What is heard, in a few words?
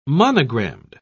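"monogrammed", English, British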